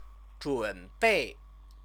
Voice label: "zhunbei", Chinese